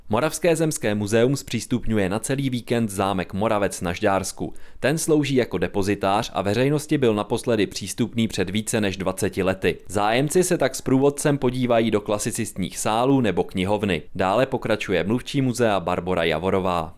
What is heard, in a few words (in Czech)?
ohlaseni